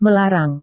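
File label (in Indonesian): "melarang", Indonesian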